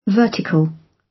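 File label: "vertical", British; Space, the planets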